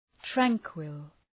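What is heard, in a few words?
"tranquil", English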